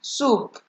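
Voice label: "soup", Nigerian Pidgin, female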